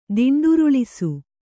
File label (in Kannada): "ದಿಂಡುರುಳಿಸು", Kannada